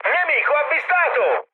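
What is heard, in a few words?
Italian enemy detected mood high, speech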